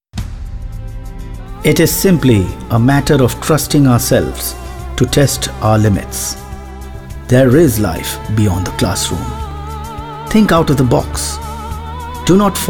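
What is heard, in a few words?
NARRATION english, male voice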